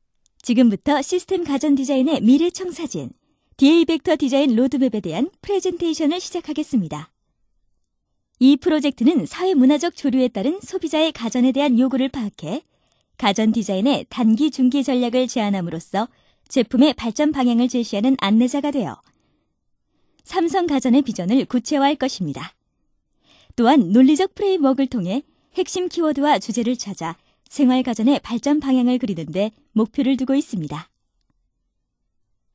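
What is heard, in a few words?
Korean, female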